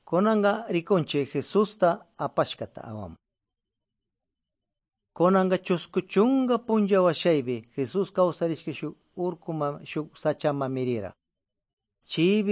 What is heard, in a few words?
good news quichua de pastaza; quechua; bible